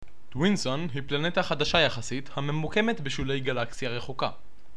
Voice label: Narrator